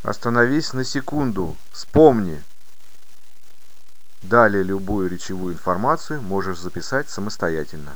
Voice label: BIP RECH 8bit